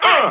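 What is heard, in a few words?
"uh", male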